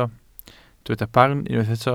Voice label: "barn", welsh

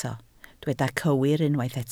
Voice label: "cywir", Welsh

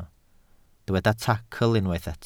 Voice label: "tacl", Welsh

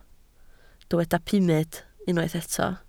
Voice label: "pumed", Welsh

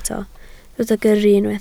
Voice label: "gyrru", Welsh

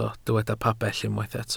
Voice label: "pabell", Welsh

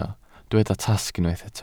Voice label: "tasg", Welsh

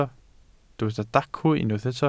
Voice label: "dacw", Welsh

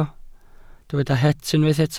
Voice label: "het", Welsh

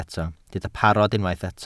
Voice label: "parod", Welsh

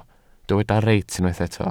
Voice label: "reit", Welsh

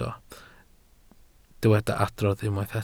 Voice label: "adrodd", Welsh

